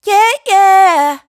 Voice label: "Yea yea" adlib, dry vocals; Raw Vocals; Adlibs

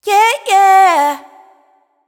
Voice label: Yea Yea wet, adlib; Wet Vocals; Adlibs

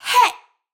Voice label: adlib Hey Shout wet, vocals; Adlibs

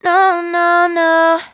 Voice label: "No no no" adlib, dry vocals; Raw Vocals; Adlibs

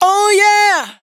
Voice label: "Oh Yeah", adlib, Dry Vocals; Fm; Raw Vocals; Adlibs

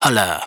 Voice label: "Hold Up", adlib, Dry Vocals; Raw Vocals; Adlibs

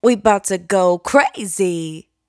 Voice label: adlib "Crazy", Vocal, Dry Vocals; Raw Vocals; Adlibs

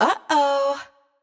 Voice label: Uh Oh wet, adlib; Wet Vocals; Adlibs

